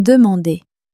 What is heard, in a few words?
"demander", French